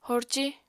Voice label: "horci", apatani

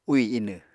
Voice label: "úì inw", Apatani

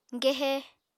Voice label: "gehe", Apatani

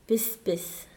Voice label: happy, Mokilese

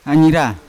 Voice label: "anyira" mosquito, Yawa

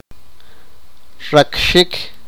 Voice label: sipakapense; scratch

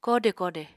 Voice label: "koode koode", Apatani

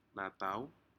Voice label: "natau", Aneityum; shellfish

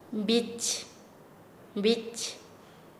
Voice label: Nasa Yuwe; puerta; paez

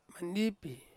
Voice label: "mandipi", Ansus; cloud

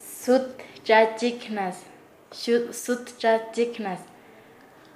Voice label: "poner atravesado", Nasa Yuwe

